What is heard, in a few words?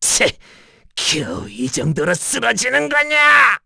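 Vox Dead, Korean; Bernheim; kingsraid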